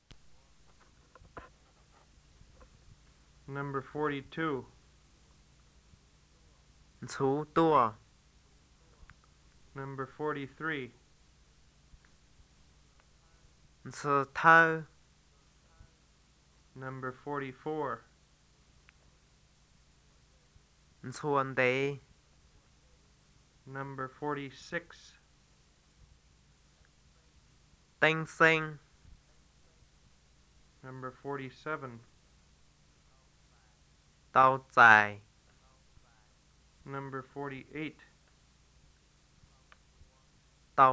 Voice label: Green Miao list